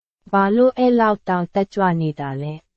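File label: Burmese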